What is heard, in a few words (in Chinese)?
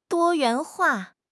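"多元化", Chinese; HSK6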